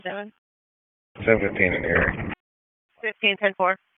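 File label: Sheriff's Office Dispatch 1, p25; WSO DISP 1; wake p25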